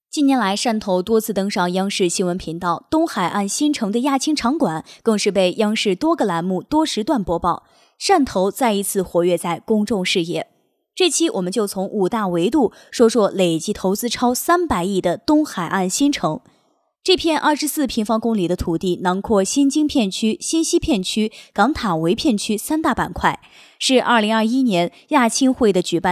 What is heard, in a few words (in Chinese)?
旁白解说